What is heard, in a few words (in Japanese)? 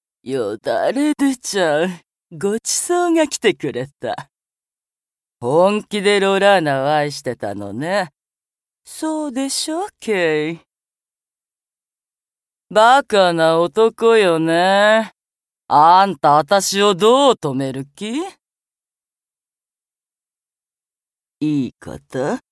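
相手を見透かす, ボイスサンプル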